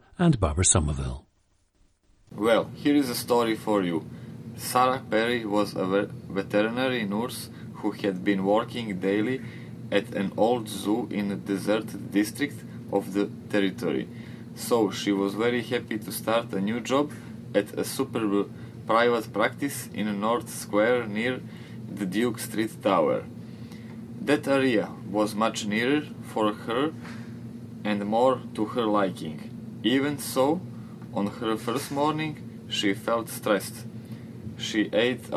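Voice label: montenegro, dialects of English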